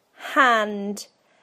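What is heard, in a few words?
"hand", British English; PictureDictionary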